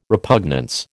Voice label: "repugnance", American